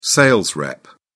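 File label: "sales rep", British English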